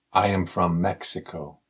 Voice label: response wo accent